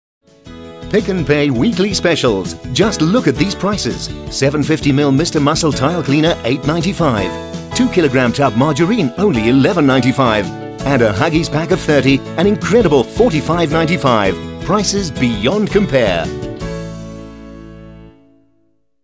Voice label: RETAIL GENTLE

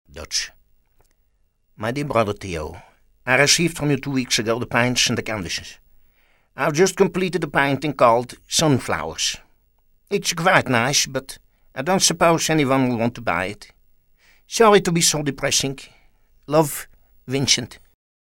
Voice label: Dutch

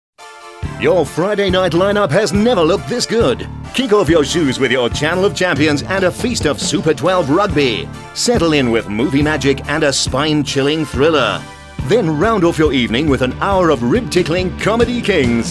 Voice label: PROG LINEUP PROMO

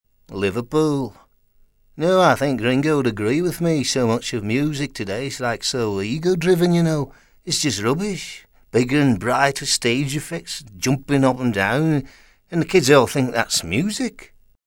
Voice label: LIVERPOOL (LANCASHIRE)

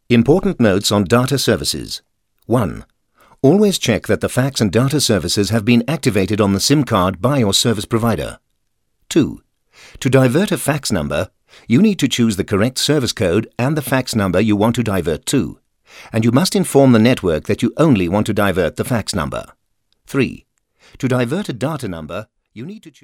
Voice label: AV INFO NARRATIVE

